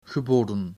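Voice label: "geboden", Dutch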